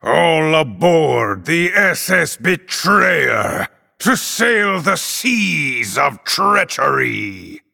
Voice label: Male Night Elf